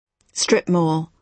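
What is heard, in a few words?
"strip mall", British English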